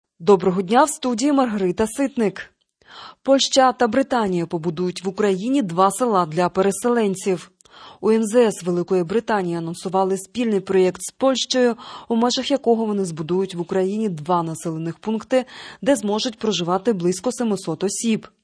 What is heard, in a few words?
Ukrainian Morning News